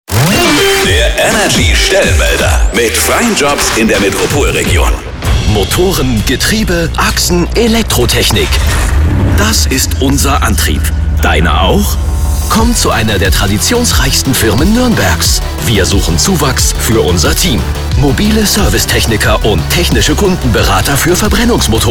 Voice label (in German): Spot Stellenmelder; Viertel Motoren